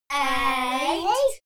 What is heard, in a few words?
kids counting "eight"